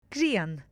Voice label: "grian", Muimhneach; Tearmai Aimsire